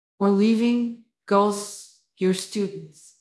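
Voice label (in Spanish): Voice Acting es F, Spanish, female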